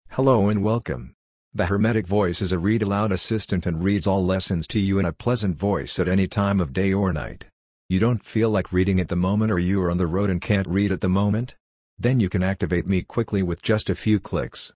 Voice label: hermetic voice